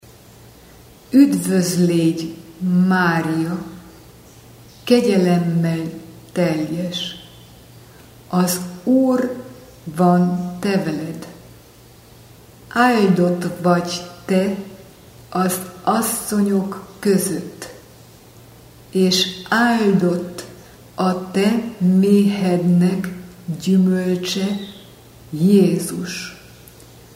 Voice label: ave maria hungaro lenta; ave maria idiomas

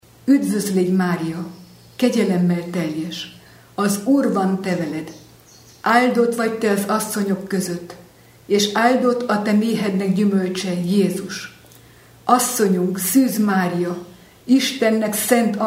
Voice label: ave maria hungaro normal; ave maria idiomas

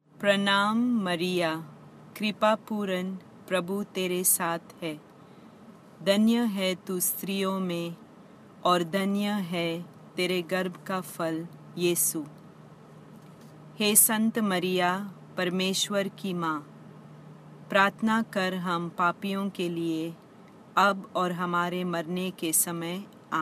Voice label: ave maria Hindi normal; ave maria idiomas

